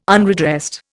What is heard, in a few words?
"unredressed", Indian